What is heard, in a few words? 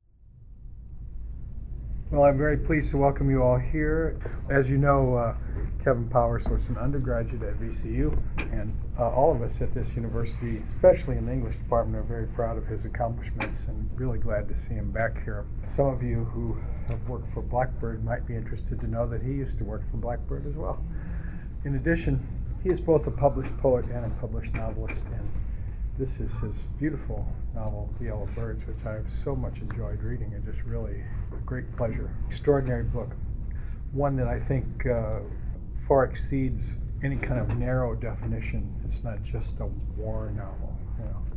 conversation